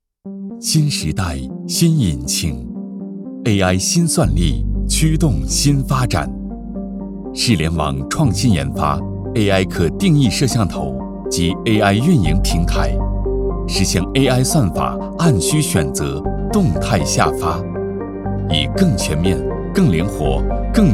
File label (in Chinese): 男 科技企业专题 科技感 温暖 轻松 叙述